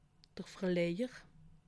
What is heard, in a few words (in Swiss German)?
"verlejer", alsace